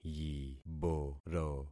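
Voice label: "yiboro", concatenated syllables; Affixing experiment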